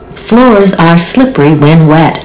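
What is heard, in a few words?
wet, Long Beach Transit Passengers Relations announcements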